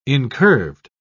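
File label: "incurved", British English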